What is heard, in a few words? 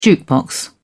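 "jukebox", British English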